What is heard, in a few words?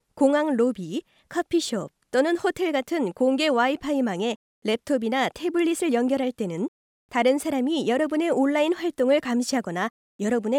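Korean, voiceovers other languages; foreign languages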